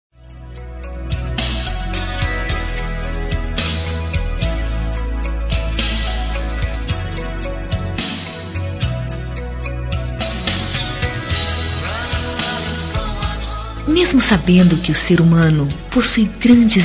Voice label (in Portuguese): ROM RECONCILIACAO DE NAMORO FEM, Telemensagens